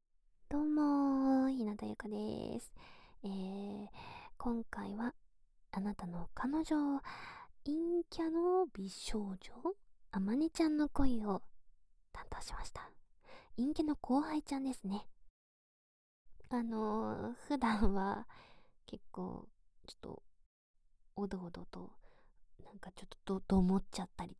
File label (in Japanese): フリートーク; 【やわらかマゾ向け】マゾバレ事案～好感度最大デカパイ陰キャ後輩にマゾがバレ、肯定されつつ甘やかされつつイチャあま搾精えっちに付き合ってくれる毎日～【やわ責め】